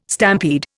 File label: "stampede", Indian